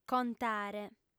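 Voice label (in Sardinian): "contare", female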